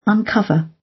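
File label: "uncover", British; Flora and fauna, agriculture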